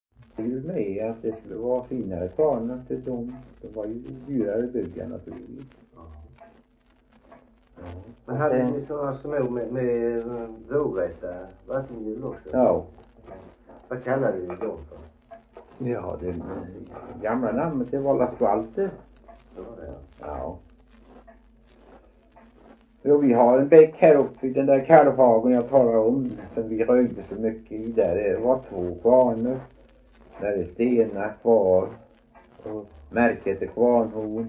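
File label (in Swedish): Veddige Halland, Svenska sagor dialekter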